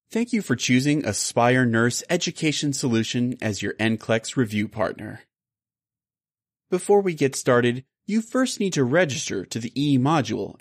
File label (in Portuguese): Locutor Americano